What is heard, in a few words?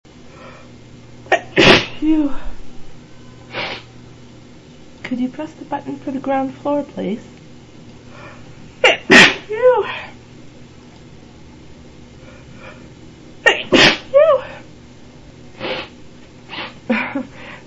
elevator, female